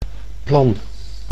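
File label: "plan", Dutch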